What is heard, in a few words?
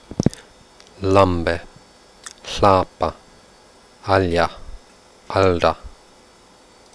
quenya laterals